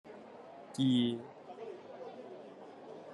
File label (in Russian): Гонконгский